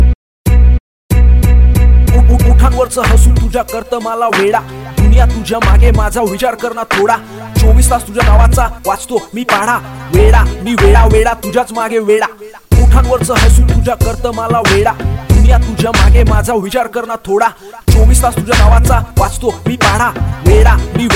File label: Marathi Rapper